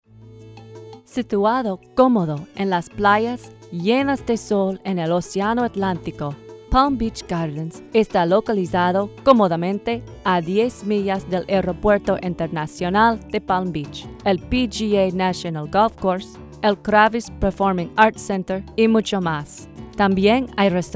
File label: voice spanish